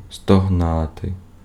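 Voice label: Ukrainian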